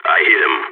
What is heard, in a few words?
enemy down, balkan; vo